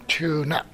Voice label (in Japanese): "chuuna", minami yamagata